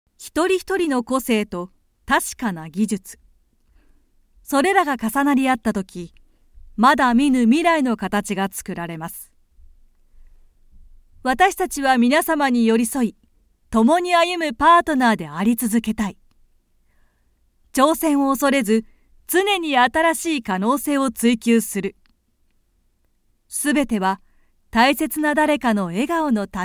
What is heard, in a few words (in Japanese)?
会社紹介